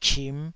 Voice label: "chim5", taiwanese syllable